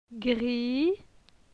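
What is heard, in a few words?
"Gri", French; phonetique; la syllabe i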